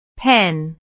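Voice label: "pen", English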